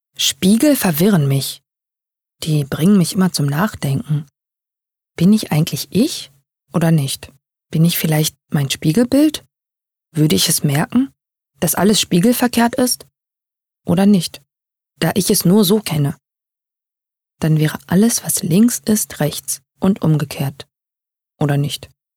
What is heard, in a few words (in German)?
Innerer Monolog